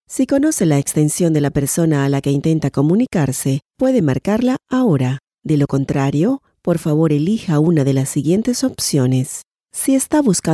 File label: Latin Spanish voice, telephone